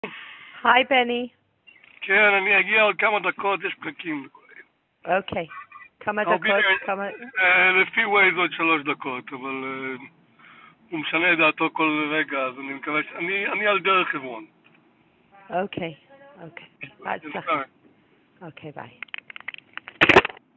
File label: call recording